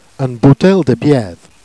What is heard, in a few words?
"boutelle de biethe", Jèrriais